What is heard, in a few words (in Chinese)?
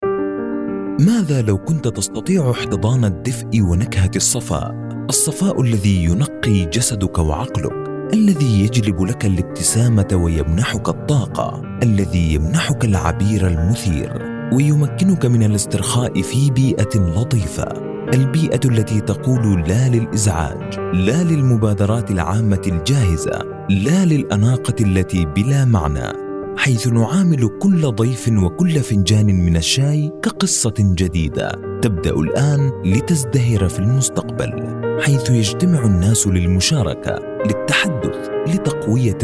阿拉伯语男 温柔 抒情